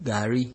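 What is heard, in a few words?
"garri", Nigerian Pidgin, male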